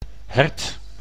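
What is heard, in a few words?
"hert", Dutch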